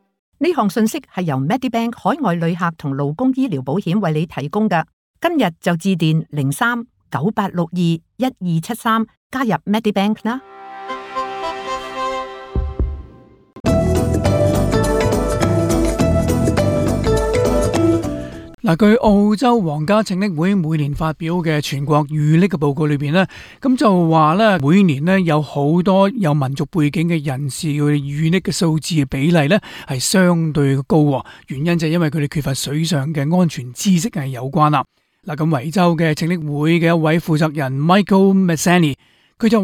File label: cantonese; 緣何「懂得泳術」在澳洲生活如此重要！; ao zhou ding ju zhi nan; SBS Chinese